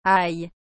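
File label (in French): "ail", French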